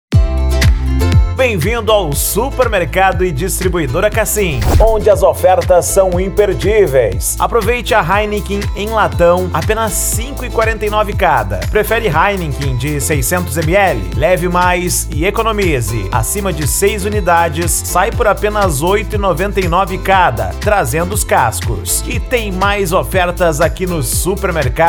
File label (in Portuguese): Locutor; Supermercado E Distribuidora Kassim Betim MG